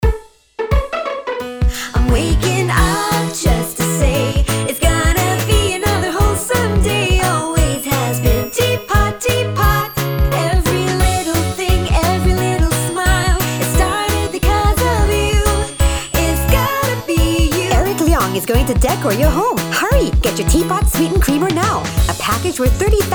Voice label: jingle announcer ENG; Teapot